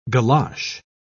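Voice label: "golosh", British English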